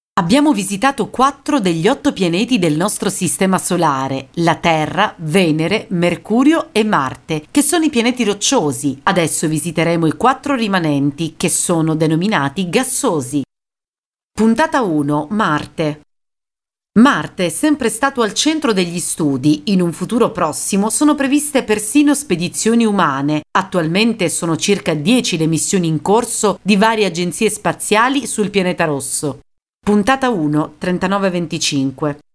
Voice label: Female, Italian